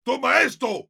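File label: Heavy meleeing, spanish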